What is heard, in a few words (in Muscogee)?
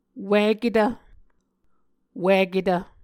"wiketv", Muscogee